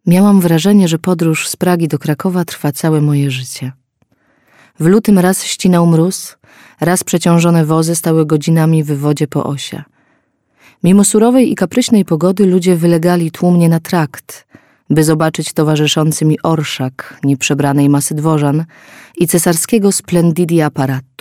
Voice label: DEMO LEKTORSKIE, elegant Polish female voice; Polish female voice artist, 30-50; Elegant, clear voice